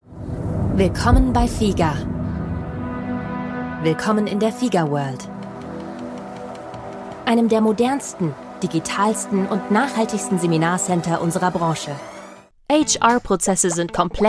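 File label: German, Corporate; GoLocalise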